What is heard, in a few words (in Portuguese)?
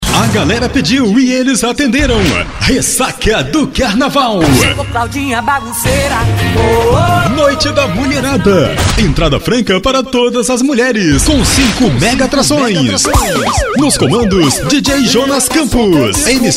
spot boate; boate Space Dance